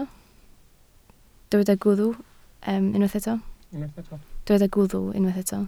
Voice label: "gwddf", Welsh